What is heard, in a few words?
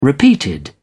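"repeated", British English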